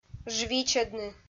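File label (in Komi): "жвичӧдны", Komi, female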